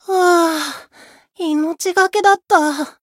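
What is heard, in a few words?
win barely, Japanese; rajah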